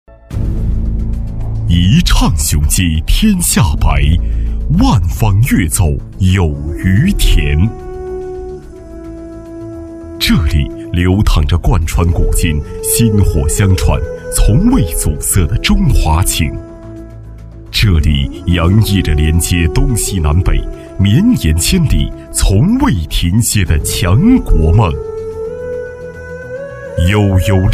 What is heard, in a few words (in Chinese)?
男 专题; 于田县宣传片